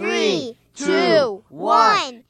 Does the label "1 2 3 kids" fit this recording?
Yes